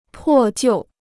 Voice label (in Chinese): "破旧", Chinese